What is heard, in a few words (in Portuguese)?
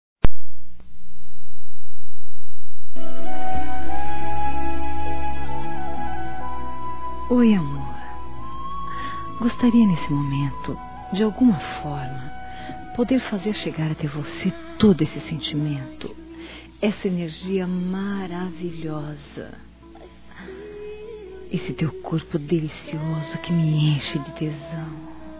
ROMANTICA ERÓTICA, fem; Telemensagens; Sensuais